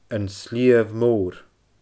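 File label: "An Sliabh Mòr", Scottish Gaelic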